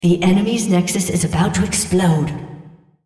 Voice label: female Nexus Misc; Odin